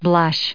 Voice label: "blush", en us

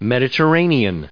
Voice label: "Mediterranean", us

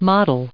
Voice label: "model", us

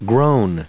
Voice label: "grown", us

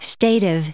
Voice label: "stative", us

